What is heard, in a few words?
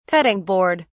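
"cutting board", British English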